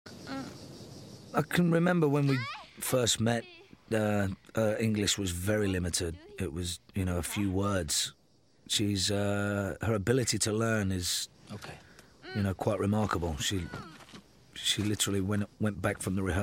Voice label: transporter english